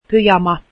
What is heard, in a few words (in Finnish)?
finnish pajamas, Finnish